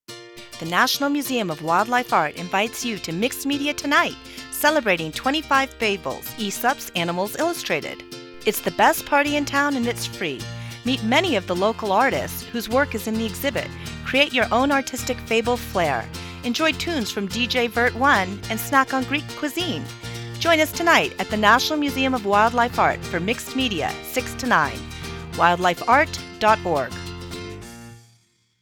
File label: radio spot